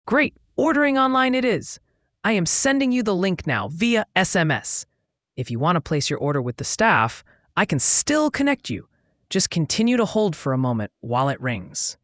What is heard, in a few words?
prompt, ivr; rosas pizzeria